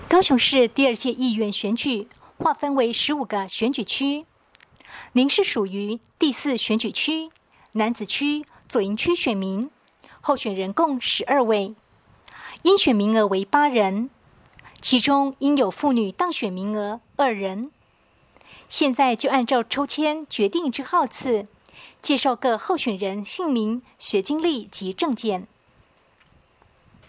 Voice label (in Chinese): 國語; 第四選區